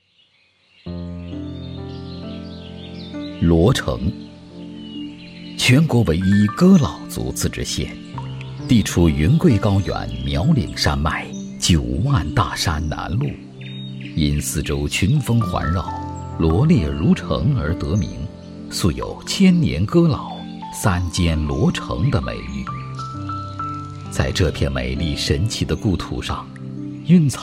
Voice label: 男, 文旅配音; 罗城长生洞景区

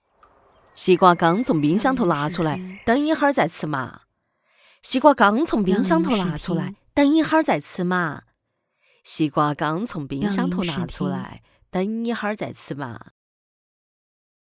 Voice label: 四川方言 女 角色 妈妈; 方言配音; 四川话

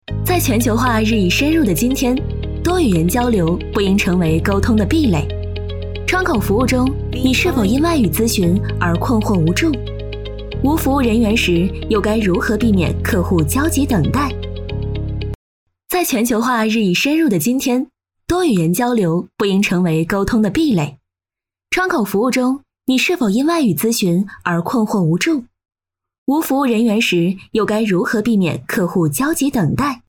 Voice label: 女 科技 多语种透明屏

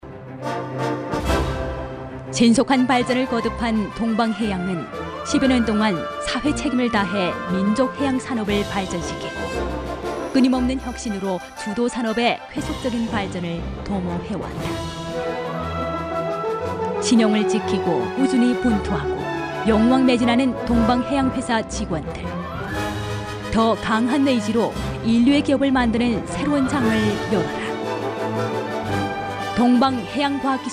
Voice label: 女 韩 大音 样音

